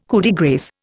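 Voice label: "coup de grâce", Indian English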